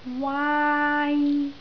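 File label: "waai1", Cantonese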